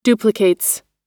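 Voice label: "duplicates", American English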